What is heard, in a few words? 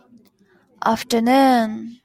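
"afternoon", Japanese, female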